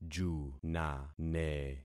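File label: "junane", concatenated syllables; Affixing experiment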